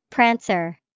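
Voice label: "prancer", English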